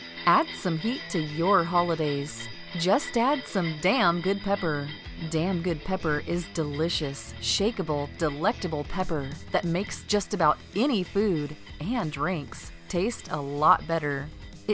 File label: commercial en us